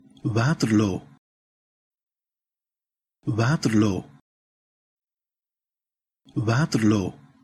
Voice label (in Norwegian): waterloo uttale flamsk